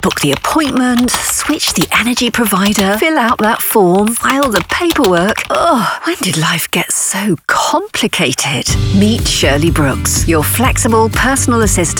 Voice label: Radio Ad, British voice; Personal Asst Ashdown Radio; commercial